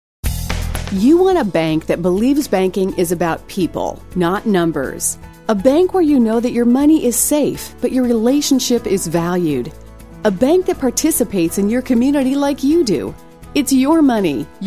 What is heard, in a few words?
Banks On Hold; MCNB